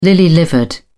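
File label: "lily-livered", British English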